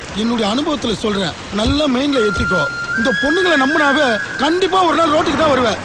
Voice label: Tamil Comedy